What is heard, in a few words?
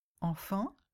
"enfant", French